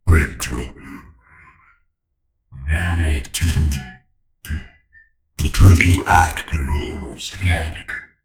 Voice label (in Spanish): un hombre grintado; clash royale